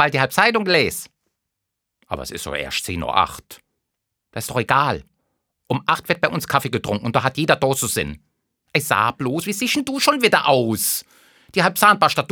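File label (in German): Saarländisch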